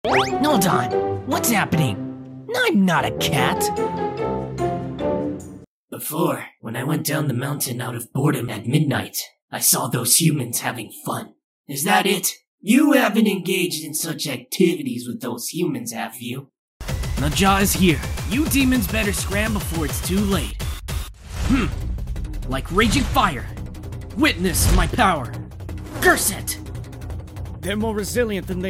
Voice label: Character Audio